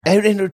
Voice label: gibberish